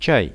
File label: chai, Russian; products